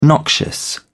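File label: "noxious", British English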